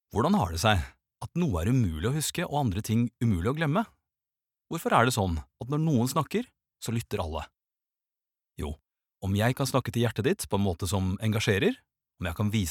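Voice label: Norwegian explanatory; forklarende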